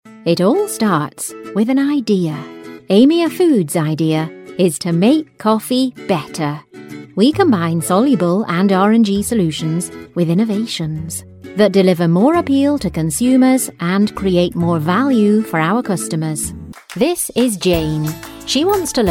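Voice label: English Explainer Video Northern Accent; GoLocalise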